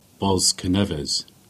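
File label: "Bosneives" Cornish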